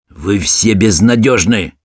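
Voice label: taunts, Russian; Sniper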